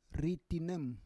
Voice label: "ritinem", Roon